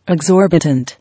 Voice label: "Exorbitant", English

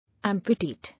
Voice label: "Amputate", English

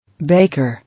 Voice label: "Baker", English

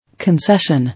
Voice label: "Concession", English

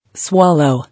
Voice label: "Swallow", English

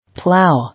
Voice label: "Plough = plow", English

